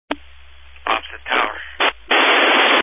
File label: Family Radio Service (FRS)